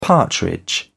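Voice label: "partridge", British English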